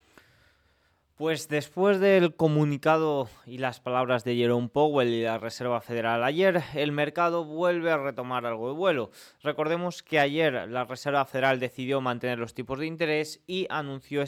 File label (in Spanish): radio